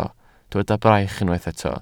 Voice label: "braich", Welsh

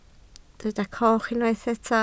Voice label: "coch", Welsh

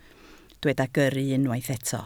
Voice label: "gyrru", Welsh

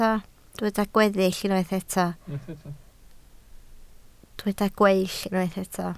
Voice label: "gweill", Welsh

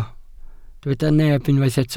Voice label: "neb", Welsh

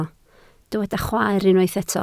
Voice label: "chwaer", Welsh

